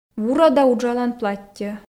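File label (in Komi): "вурӧда уджалан платтьӧ", Komi, female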